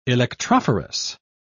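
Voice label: "electrophorus", British English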